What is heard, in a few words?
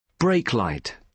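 "brake light", British English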